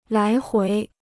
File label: "来回", Chinese